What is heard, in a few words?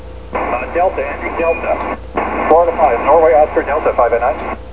15 ssb